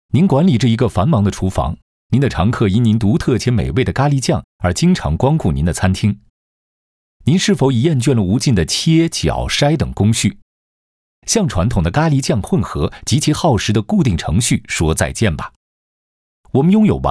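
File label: Chinese, male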